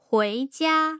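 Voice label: "huijia", Mandarin Chinese, tone pairs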